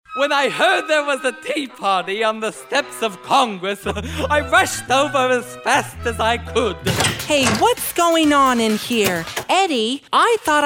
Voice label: Voice Character